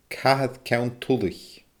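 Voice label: "Cathair Ceann Tulaich", Scottish Gaelic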